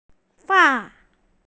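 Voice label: "fan4", Mandarin Chinese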